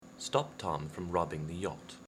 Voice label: stop tom robbing yacht, RP; speech; dialects

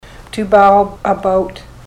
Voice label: to bow about, ontario

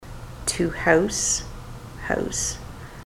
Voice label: to house house, ontario; mouth set

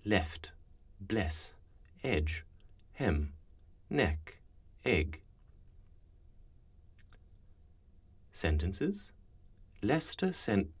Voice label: Dress, rp, speech, dialects

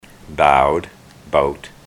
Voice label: bowed bout, ontario

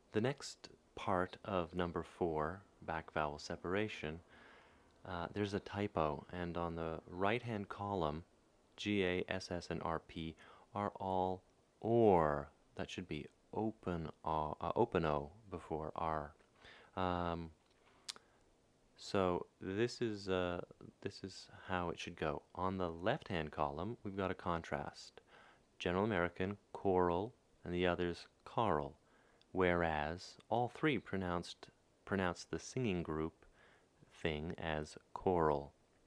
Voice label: rp, phonetics; speech

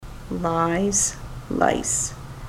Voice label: lies lice, ontario

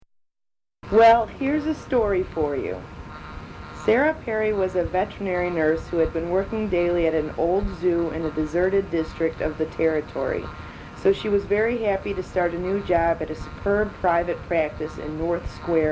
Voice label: dialects chicago; speech

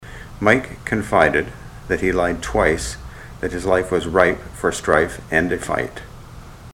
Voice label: mike lied twice, ontario

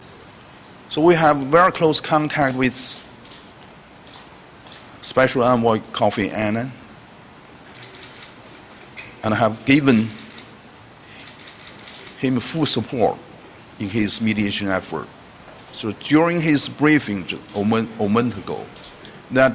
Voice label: BEIJING MANDARIN Male; VASTA

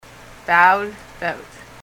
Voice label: bowed bout, ontario; mouth set

